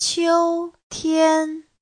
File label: "qiu1tian1", Chinese